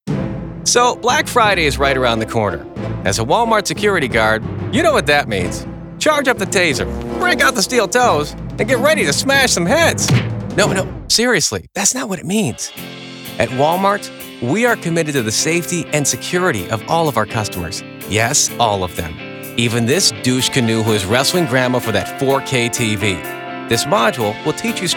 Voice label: E-Learning, Male voices

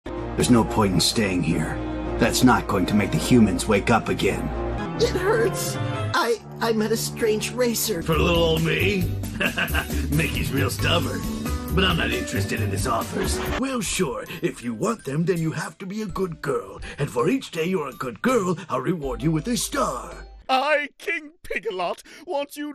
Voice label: Animation, Male voices

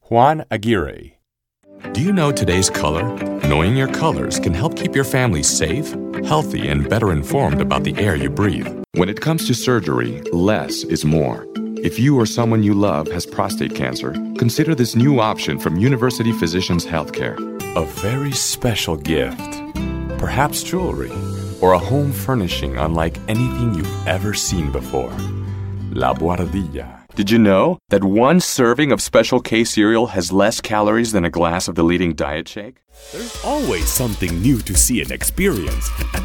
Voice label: English Commercial, Male voices

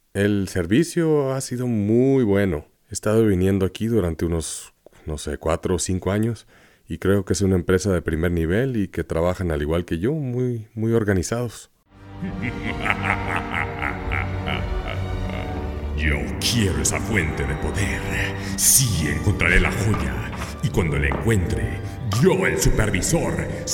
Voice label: Spanish Personajes, Male voices

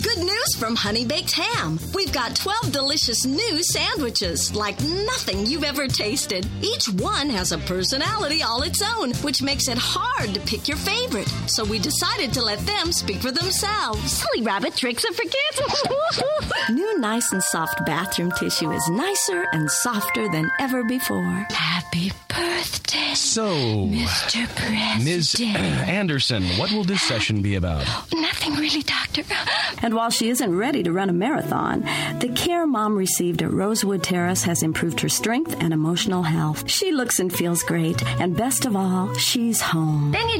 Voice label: Commercial, Female voices

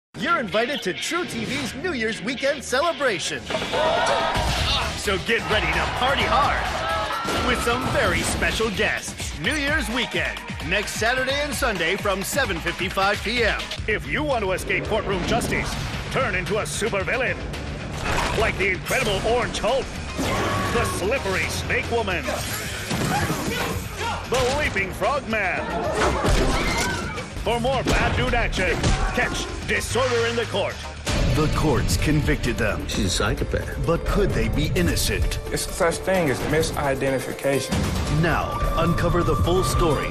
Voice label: Promo, Male voices

